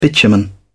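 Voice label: "bitumen", British English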